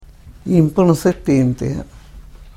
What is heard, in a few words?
karuk